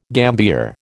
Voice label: "gambier", American

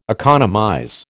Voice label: "economize", American

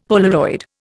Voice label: "Polaroid", Indian; Dictionary

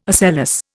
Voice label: "ocellus", Indian

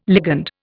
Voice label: "ligand", Indian

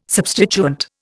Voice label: "substituent", Indian

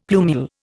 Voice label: "plumule", Indian; Dictionary

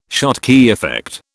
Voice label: "Schottky effect", British

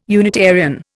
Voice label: "Unitarian", Indian

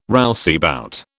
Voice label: "rouseabout", British

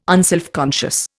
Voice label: "unselfconscious", Indian

